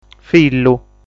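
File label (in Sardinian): "Fillu", Sardinian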